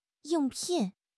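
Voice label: "ying4 pin4", Mandarin Chinese; HSK; HSK4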